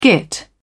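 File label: "git", English, British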